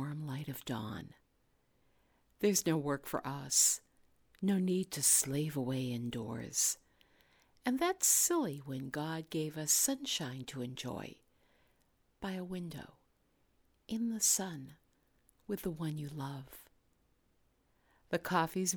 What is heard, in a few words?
Dramatic Free Style Read Early Morning